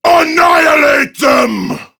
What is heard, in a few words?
Attack Prime Grineer Male; Tau; Battle Barks